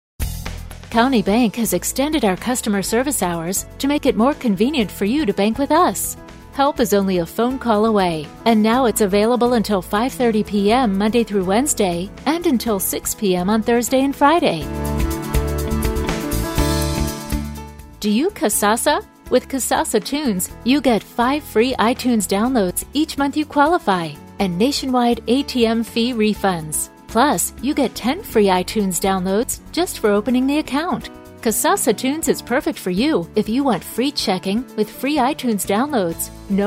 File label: On hold message; Countybank